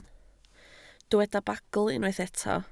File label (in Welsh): "bagl" Welsh